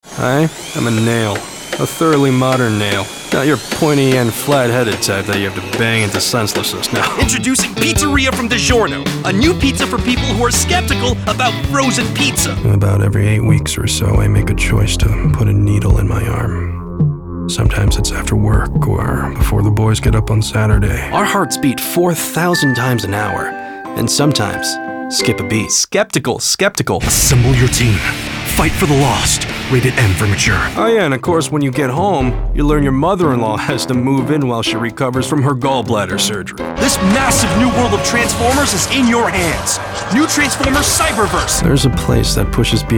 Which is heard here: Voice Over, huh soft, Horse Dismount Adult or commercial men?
commercial men